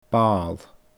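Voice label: "baal", Scottish Gaelic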